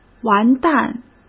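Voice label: "完蛋", Mandarin Chinese; hsk; chinese-flashcards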